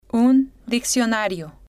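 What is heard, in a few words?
Spanish, female; un Diccionario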